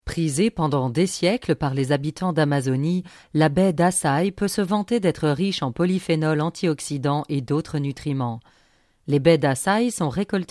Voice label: documentary